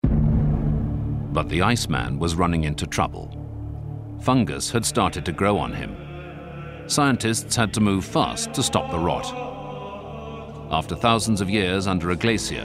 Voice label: Narr